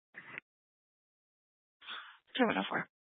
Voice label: Police Department Dispatch, scanner